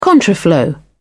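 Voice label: "contraflow", British English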